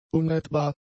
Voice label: "unrettbar", German; adjectives